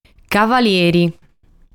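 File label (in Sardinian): "Cavalieri", Sardinian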